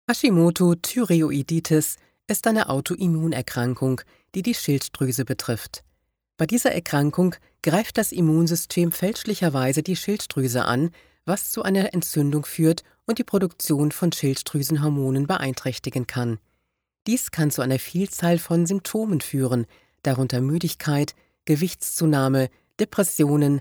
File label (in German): Werbung